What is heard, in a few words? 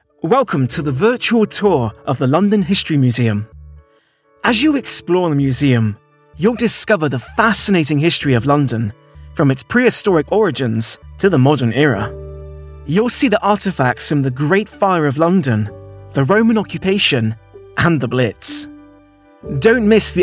westcountry bristol voice elearning